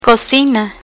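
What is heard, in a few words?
"cocina", Spanish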